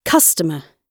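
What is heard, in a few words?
"customer" British English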